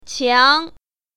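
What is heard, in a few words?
"qiang2", Mandarin Chinese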